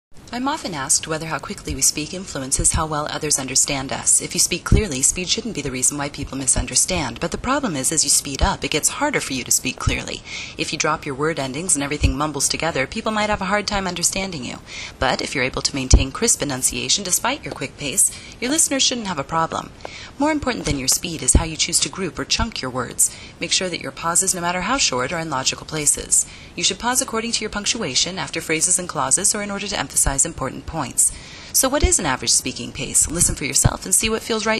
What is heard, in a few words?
250wpm